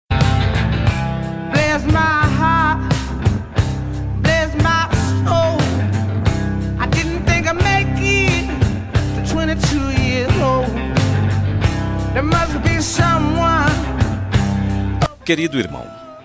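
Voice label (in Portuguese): birthday IRMAO male, telemensagem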